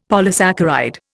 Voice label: "polysaccharide", Indian; Dictionary